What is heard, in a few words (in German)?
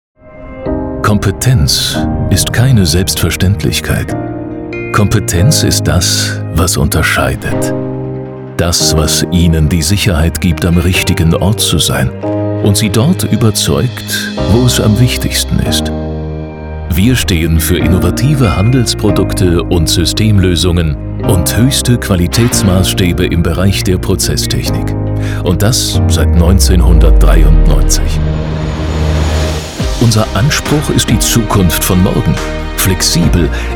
Image kraftvoll